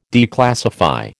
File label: "declassify", American